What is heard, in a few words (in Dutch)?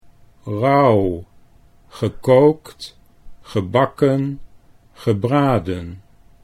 "rauwgekookt", Dutch